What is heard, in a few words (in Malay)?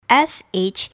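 "sh", Malay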